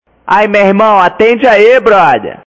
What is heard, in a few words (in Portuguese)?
Amigo Carioca Liga